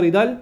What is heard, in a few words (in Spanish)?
gritar, cu ha; gritar [ɣ˕ɾiˈtal]; Kuba; Havanna